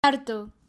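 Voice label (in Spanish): "harto", Spanish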